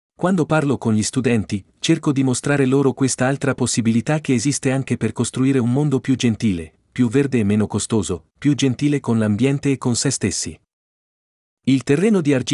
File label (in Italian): Italiano